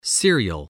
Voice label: "cereal", English, voice